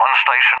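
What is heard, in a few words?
"on station", English, UK; DCS; Allied Flight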